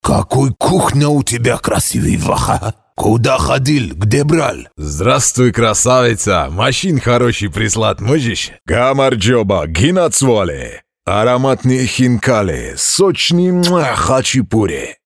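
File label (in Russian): грузин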